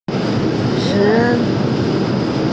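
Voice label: "qian1bi3", Mandarin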